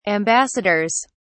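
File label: "ambassadors", English, British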